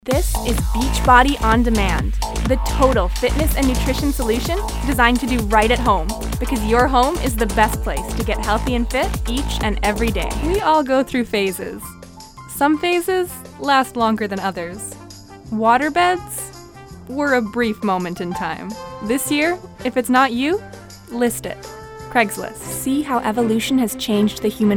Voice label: Commercial VO